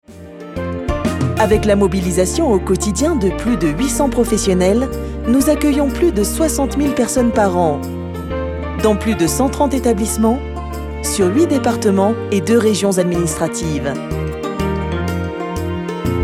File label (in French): Explicatif souriant dynamique; ALPHA3A